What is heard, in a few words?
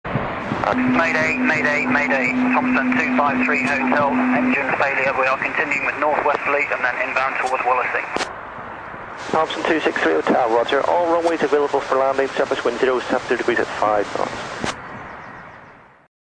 pilots speaking